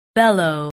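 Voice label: "bellow", English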